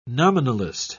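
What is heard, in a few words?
"nominalist", British English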